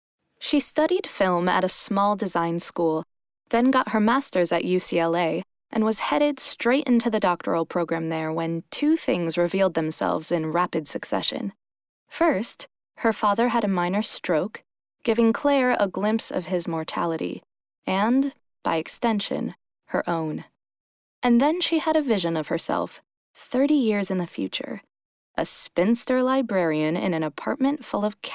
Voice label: Audiobook